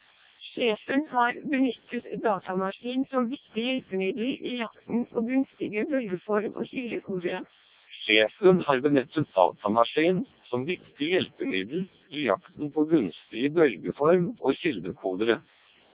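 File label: Norwegian speech, CODEC2 vocoder; CODEC2 700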